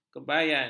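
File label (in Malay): "kebayan", Malay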